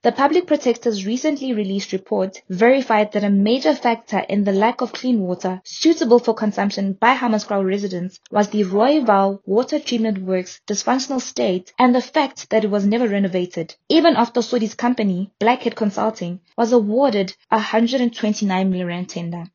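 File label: English; Edwin Sodi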